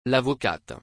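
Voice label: "L'avocate", French; وکیل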